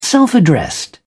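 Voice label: "self addressed", British English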